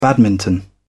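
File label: "badminton", British English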